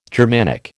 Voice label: "Germanic", American